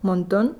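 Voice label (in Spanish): "monton", Spanish, Madrid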